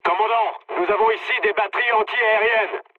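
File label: French damaged aa mood low; War Thunder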